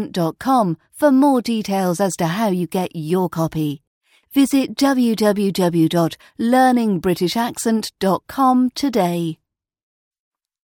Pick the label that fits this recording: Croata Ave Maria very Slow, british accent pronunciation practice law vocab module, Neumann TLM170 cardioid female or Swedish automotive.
british accent pronunciation practice law vocab module